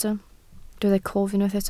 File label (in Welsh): "cof", Welsh